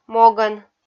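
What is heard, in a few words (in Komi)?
"могӧн", Komi, female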